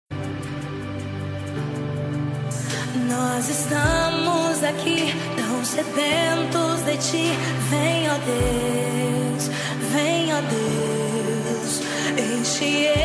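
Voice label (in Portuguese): ANIV AVO male, telemensagem aniversario avo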